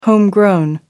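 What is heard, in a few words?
"homegrown", English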